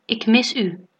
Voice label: i miss you, dutch

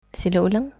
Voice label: slowly, malay

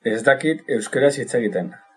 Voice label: i dont speak basque, basque

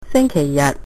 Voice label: sunday, Cantonese

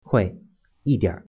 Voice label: a little, mandarin

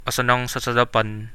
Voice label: good evening, Central Dusun

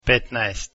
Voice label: 15 croatian

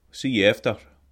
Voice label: goodbye, scots

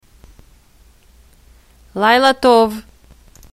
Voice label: goodnight, hebrew

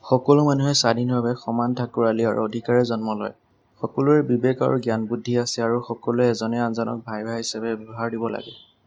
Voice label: assamese

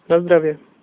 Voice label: cheers, slovak

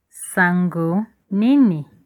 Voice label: how are you, Lingala; Nsango nini?

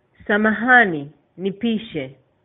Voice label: excuse me, swahili

